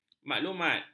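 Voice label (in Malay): "maklumat", Malay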